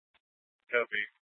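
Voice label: Mesa Police Department Superstition Dispatch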